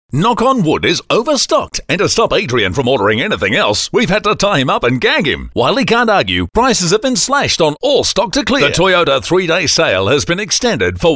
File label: Hard Sell, voice-overs